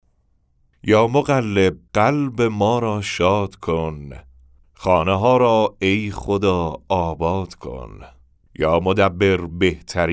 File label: Narration; nowruz